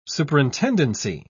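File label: "superintendency", English, British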